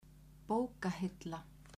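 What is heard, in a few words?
"bokahilla", Icelandic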